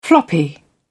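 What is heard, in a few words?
"floppy", British English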